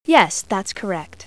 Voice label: correct woman